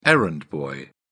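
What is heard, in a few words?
"errand boy", British English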